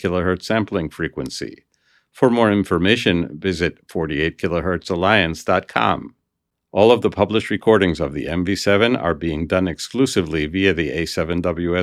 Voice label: MV7 near natural